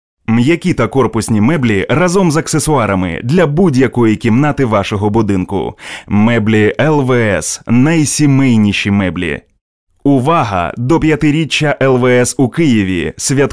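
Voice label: locutor ucranio ukrainian voiceover, Ukrainian male voice over; locutor ucrania, Ukrainian voice over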